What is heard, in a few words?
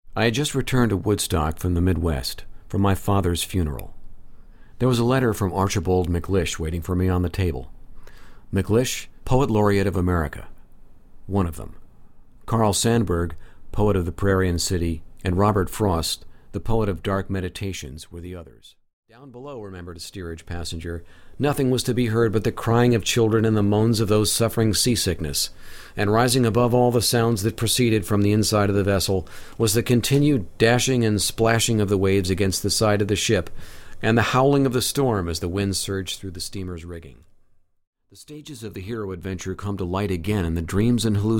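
VO Narration audiobook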